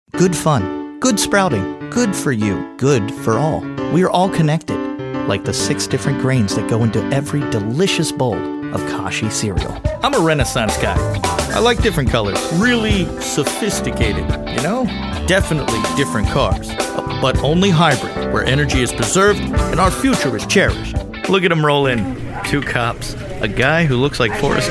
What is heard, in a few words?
Commercial